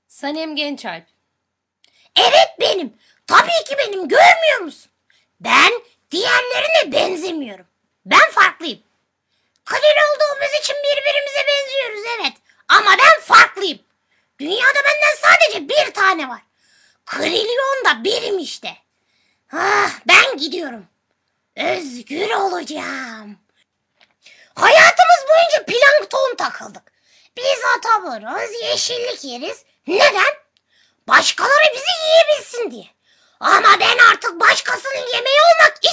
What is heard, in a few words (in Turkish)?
animasyon